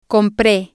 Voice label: "compre", Spanish

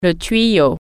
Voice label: "pipe", French

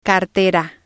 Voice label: "cartera", spanish

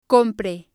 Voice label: "compre", spanish

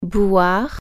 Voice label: "drink", French

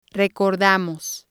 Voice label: "recordamos", Spanish

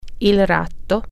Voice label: rat, italian

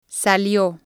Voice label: "salio", spanish

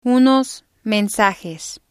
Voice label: "unos mensajes", Spanish

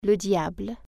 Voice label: devil, french

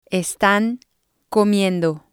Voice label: "estan comiendo", spanish

